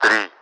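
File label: Russian speech, Mi-8MT; three; DCS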